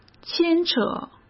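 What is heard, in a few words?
"牵扯", Mandarin Chinese; hsk; chinese-flashcards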